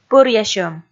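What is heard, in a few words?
"пӧръясьӧм", Komi, female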